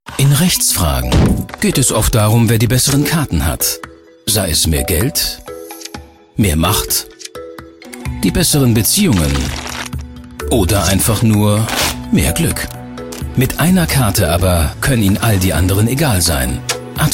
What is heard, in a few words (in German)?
Tv Spot Chancengleichheit werbung; Advocard